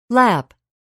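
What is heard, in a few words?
"lap", English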